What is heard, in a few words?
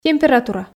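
"температура", Komi, female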